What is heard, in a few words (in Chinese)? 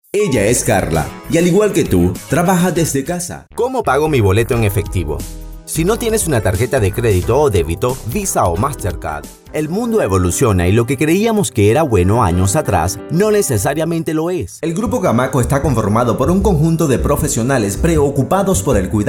外籍西语男 Youtube 广告 Video